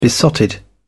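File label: "besotted", British English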